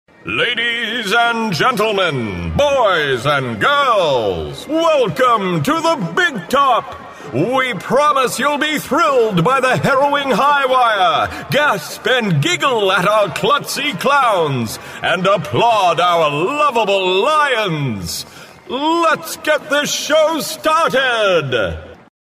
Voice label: RINGMASTER voiceover

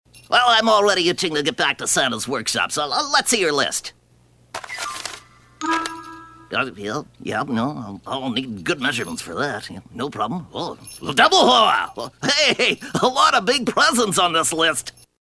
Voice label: ELF voiceover

